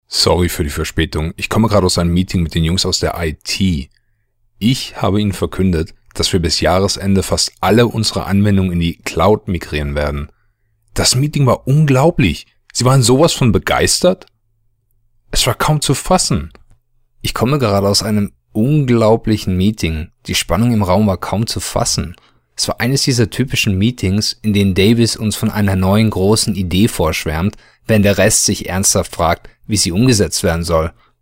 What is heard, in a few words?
German